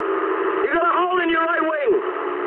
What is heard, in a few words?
eng right wing mood high, speech eng; War Thunder